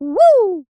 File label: "woo", cartoon character high pitched